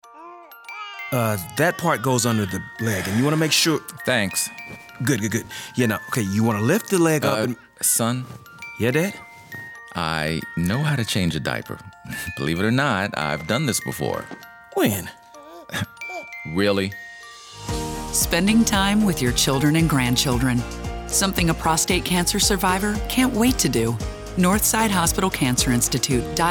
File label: Spot; Northside Hospital; Baby-Prostate